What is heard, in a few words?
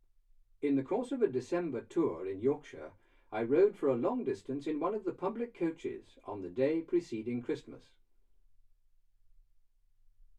male speech english